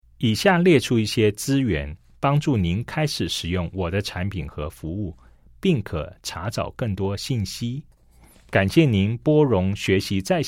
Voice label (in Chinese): CHINESE